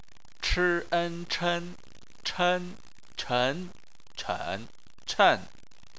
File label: "chen", Chinese